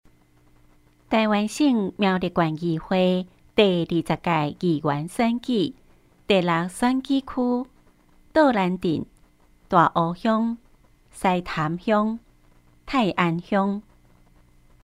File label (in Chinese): 台語; 苗栗縣; 有聲公報; 縣議員; 第6選舉區